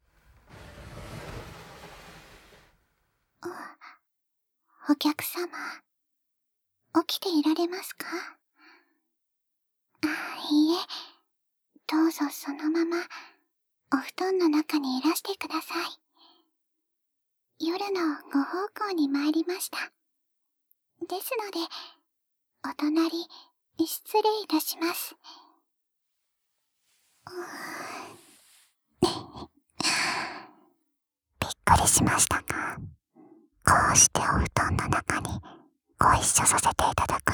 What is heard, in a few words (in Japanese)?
夜這い, ASMR; あやめの夜這い